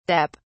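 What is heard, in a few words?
"dep", British English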